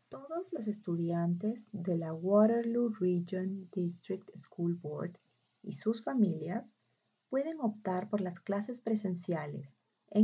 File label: Spanish